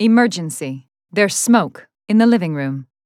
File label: emergency en-US